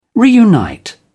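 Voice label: "reunite", British English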